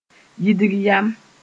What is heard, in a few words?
"yidg yam", mos-en; disgust